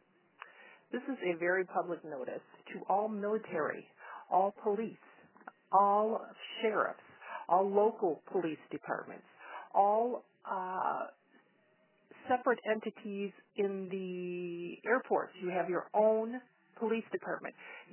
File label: voice message